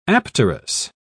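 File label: "apterous", British English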